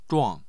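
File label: "zhuang4", Mandarin Chinese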